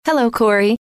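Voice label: hello, female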